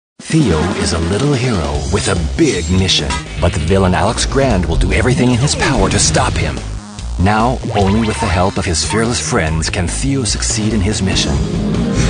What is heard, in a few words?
Youtube Publicity